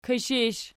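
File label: "kishish" nominative, Archi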